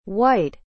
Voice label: "white" "blanco"